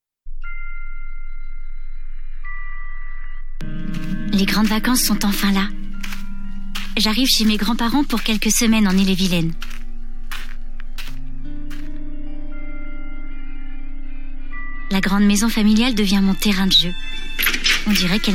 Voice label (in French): Jeune Fille 10 ans; DocGame Classe 1914